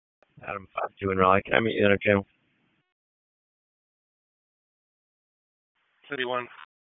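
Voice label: Police Dispatch; Raleigh; Southeast District; wake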